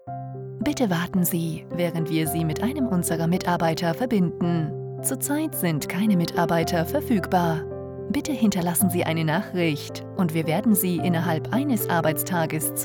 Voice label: On Hold